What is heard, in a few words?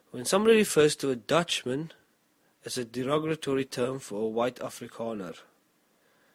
South African English; When someone refers to a Dutchman, it’s a derogatory term for a white Afrikaner.